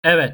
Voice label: "evet", Turkish; Turkish page 11